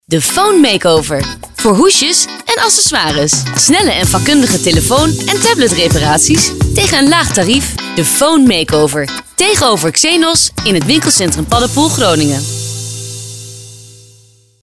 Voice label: Commercial; The Phone Makeover Paddepoel 2015